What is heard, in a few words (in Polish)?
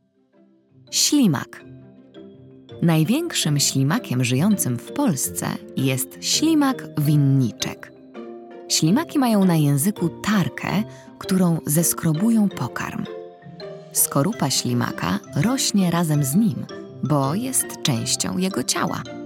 narracja; czasopismo mis; wiosna